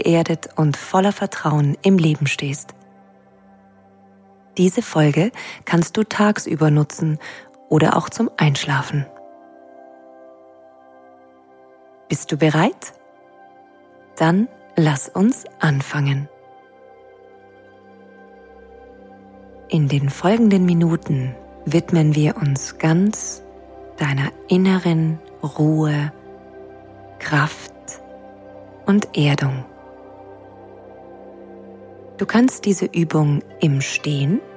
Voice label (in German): eine Meditation für mehr Selbstvertrauen; Unsicherheit ablegen; Ruhe und Kraft; Meditation für alle Lebenslagen; Julep Studios; Entspannung